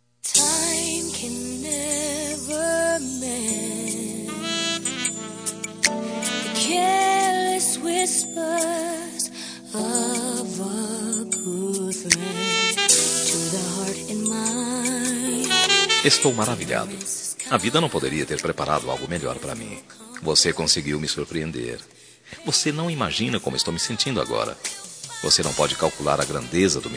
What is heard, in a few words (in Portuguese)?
ROM OBRIGADO PELA SURPRESA MASC